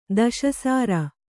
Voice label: "ದಶಸಾರ", kannada

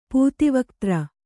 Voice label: "ಪೂತಿವಕ್ತ್ರ", Kannada

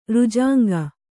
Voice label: "ರುಜಾಂಗ", Kannada

